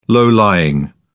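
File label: "low-lying", British English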